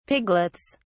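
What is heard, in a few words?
"piglets", British English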